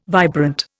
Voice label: "vibrant", Indian

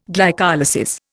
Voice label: "glycolysis", Indian

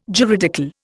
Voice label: "juridical", Indian

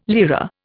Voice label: "lira", Indian

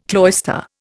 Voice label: "cloister", Indian

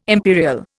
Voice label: "imperial", Indian

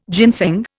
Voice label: "ginseng", Indian

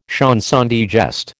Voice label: "chanson de geste", American

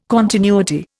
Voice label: "continuity", Indian

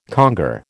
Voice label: "conger", American

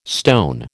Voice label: "stone", American

